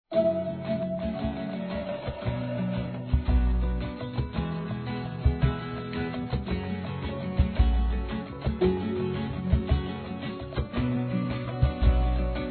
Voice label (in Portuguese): ANIVERSÁRIO DE IRMÃ F, Telemensagens, Fem; Aniversários; Aniv Familiar